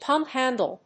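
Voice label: "pump-handle", English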